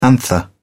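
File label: "anther", British English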